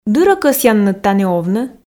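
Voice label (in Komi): "дыр-ӧ кӧсъянныд тані овны", Komi, female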